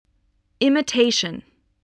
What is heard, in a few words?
"imitation", voice